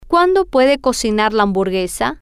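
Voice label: when can she cook the hamburger, spanish, female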